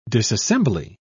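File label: "disassembly", British English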